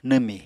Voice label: "nwmi", Apatani